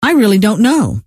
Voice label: dont know, scientist female

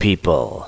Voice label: "people", word

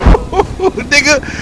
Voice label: Cheer; Lamar Davis

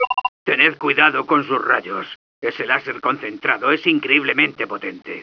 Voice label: cautious

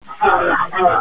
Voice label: pain; cryocell

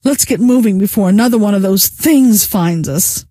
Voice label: "let's get moving", scientist female

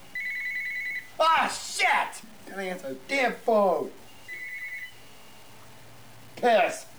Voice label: Chat

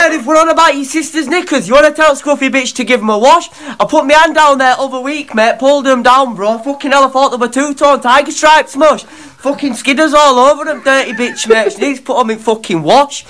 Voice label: british

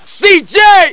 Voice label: Cheer; CarlJohnson

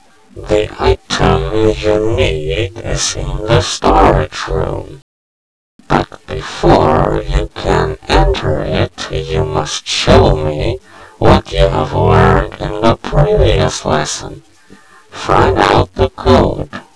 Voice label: holo prev, tutorial; blackops

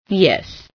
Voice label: "yes", female

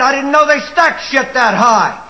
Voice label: chat; sgthartmann

